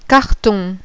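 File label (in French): "CARTON", French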